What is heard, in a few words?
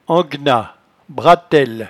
"anguenat", patois jura